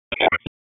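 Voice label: Sheriff's Office Dispatch 1, p25; wake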